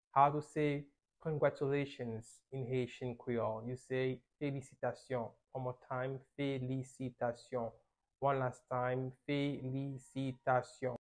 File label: How to say Congratulations in Haitian Creole Felisitasyon pronunciation by a native Haitian